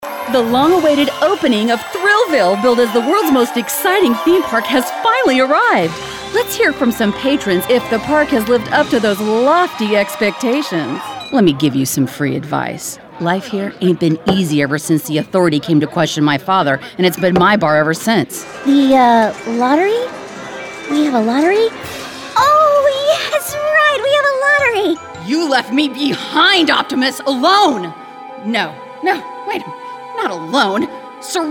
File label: Animation